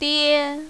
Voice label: "die1", mandarin, female